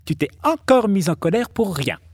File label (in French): voix jouee; chipie